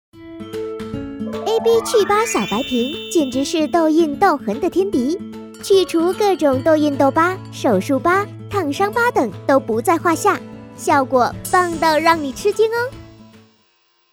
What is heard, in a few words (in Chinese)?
活泼女声护肤品品牌广告